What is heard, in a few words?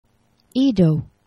"ido", Esperanto; geneva